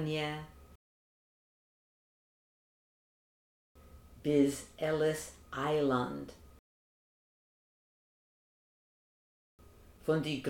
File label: Dos Land Iz Dayn Land pronunciation drill; Listen and repeat